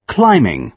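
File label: "climbing", British English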